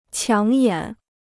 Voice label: "抢眼", Chinese

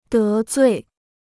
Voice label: "得罪", Chinese

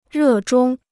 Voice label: "热衷", Chinese

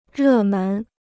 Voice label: "热门", Chinese

